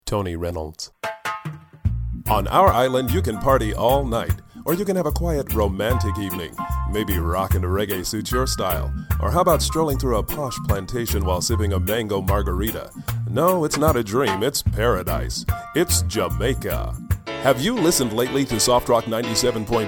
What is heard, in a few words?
Voice Over